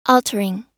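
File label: "altering", US English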